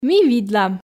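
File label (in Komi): Komi, ми видлам, female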